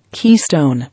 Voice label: "Keystone", English